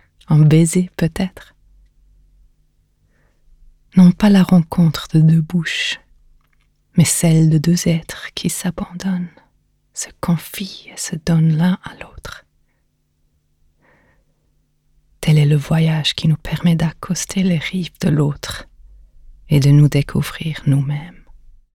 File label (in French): FRENCH SENSUEL